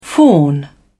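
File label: "fawn", British English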